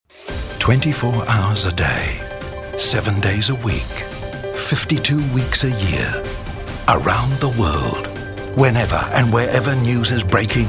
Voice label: promos

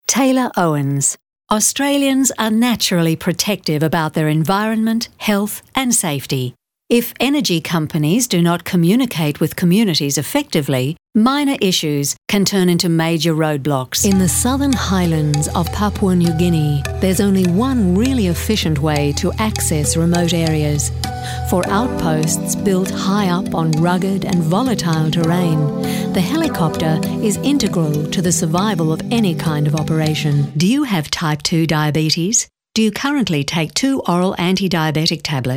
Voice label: corporate

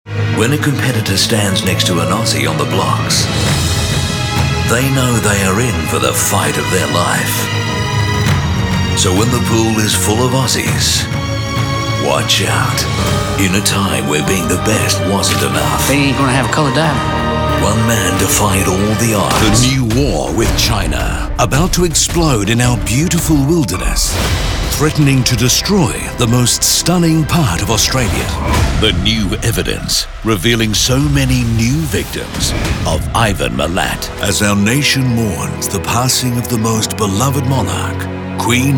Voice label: TV Promos

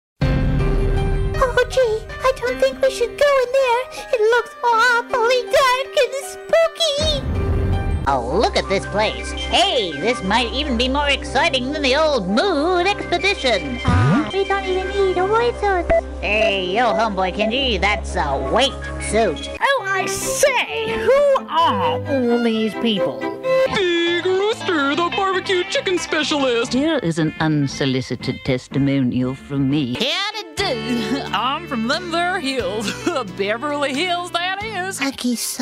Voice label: animation

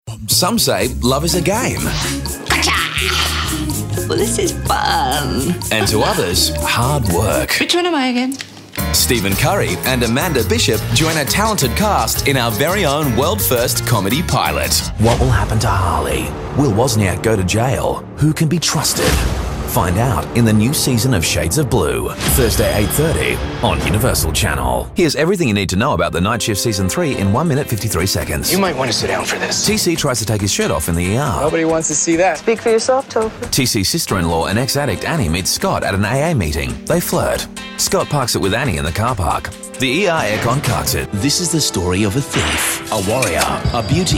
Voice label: Promo

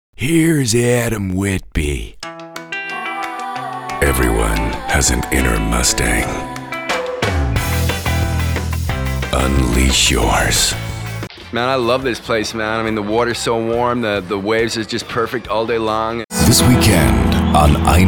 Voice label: US accents and characters

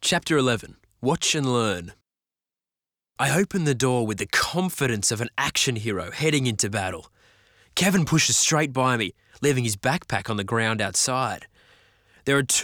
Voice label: narration audiobook example; voices

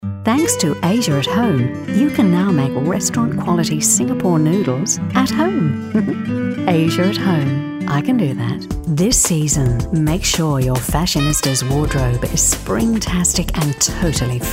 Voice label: neutral

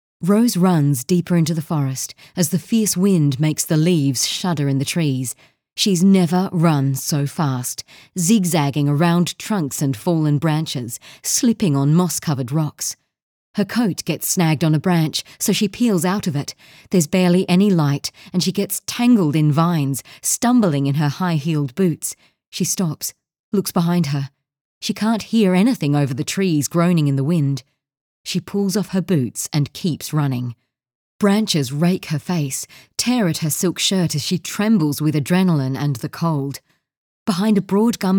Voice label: Australian Thriller

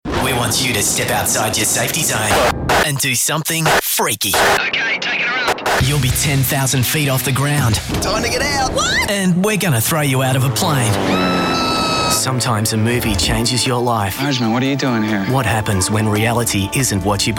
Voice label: Promo

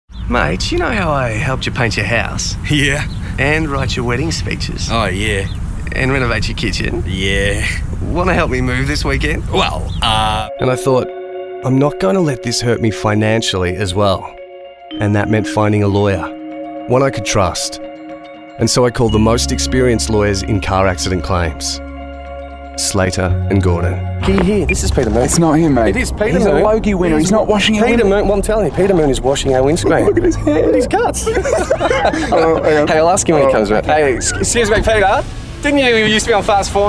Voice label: ACTING

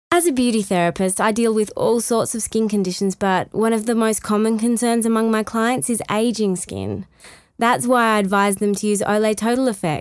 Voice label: voice acting

